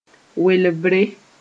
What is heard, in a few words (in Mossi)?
"welbre", moore